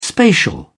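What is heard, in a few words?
"spatial", British English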